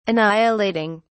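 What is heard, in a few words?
"annihilating", British English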